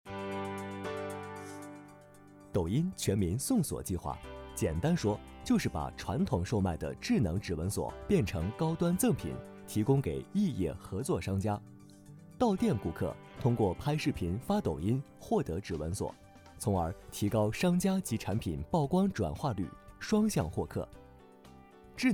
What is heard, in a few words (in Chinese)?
时尚都市抖音宣传片